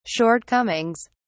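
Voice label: "shortcomings", British English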